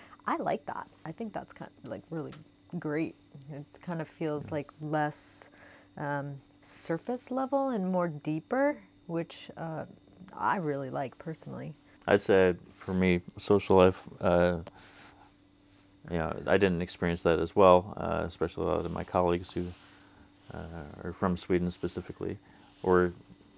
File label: swedish people cold